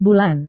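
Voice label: "bulan", Indonesian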